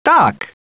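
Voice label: "yes", male; TALKNOW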